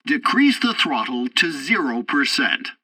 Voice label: tutorial landing breaks, english; War Thunder